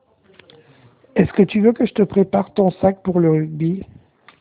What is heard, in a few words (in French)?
Message vocal